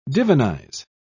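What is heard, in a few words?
"divinize", British English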